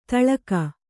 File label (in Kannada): "ತೆಲುಗು", Kannada